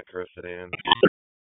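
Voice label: Police Spec Ops Tac, radio; wake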